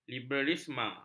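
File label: "liberalisme", Malay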